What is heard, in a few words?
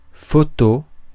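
"photo", French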